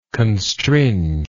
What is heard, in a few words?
"constringe", English